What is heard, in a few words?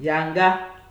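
"yanga", Nigerian Pidgin, male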